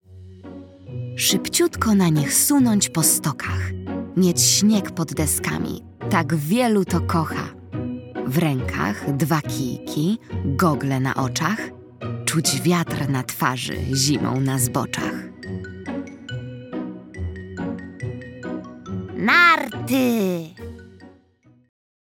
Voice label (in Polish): narracja; czasopismo mis; zima; Zagadka